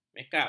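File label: "mekap", Malay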